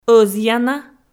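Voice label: "ӧзъяна", Komi-Zyrian, female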